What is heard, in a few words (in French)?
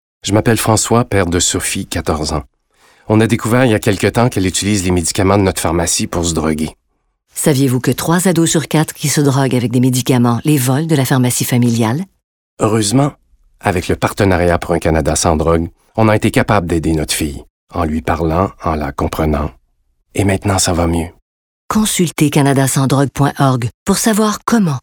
Voix Narration; Canada sans drogue